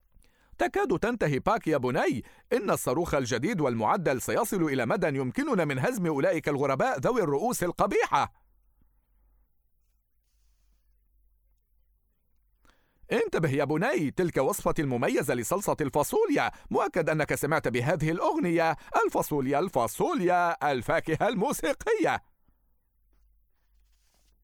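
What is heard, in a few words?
arabic male character; voice demos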